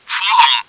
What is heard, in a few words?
front room, german; csco; csgo; bot